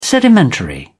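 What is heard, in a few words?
"sedimentary", British English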